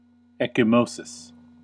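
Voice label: "ecchymosis", American English; pronunciation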